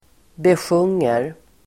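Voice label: "besjunger", Swedish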